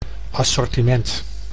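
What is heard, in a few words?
"assortiment", Dutch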